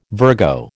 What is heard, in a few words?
"Virgo", American